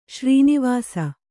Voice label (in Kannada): "ಶ್ರೀನಿವಾಸ", kannada